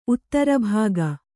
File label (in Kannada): "ಉತ್ತರಭಾಗ", Kannada